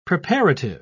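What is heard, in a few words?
"preparative", British English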